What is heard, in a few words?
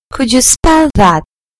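"could you", British English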